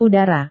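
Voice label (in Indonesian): "udara", Indonesian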